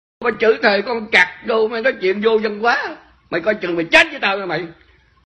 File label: angry chinese man with gun